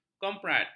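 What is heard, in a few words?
"komrad", Malay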